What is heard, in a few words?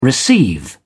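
"receive", British English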